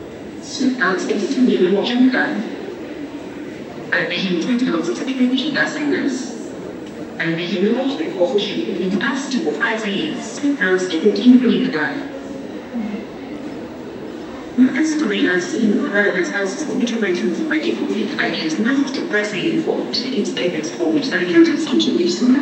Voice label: airport station speaker

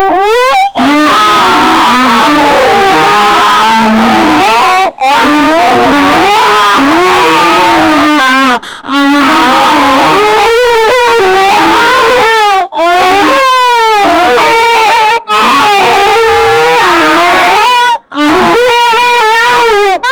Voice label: a boy and a girl

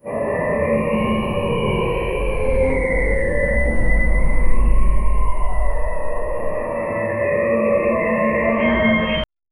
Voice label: symbiote whispering; psychological

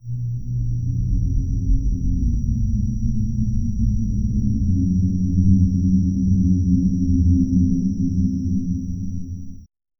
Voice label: eerie whisper sudden low; supernatural

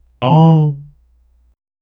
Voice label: a single short neutral gibberish

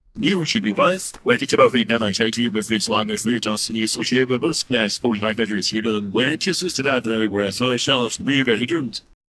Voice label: exciting voice for an ad; advertising

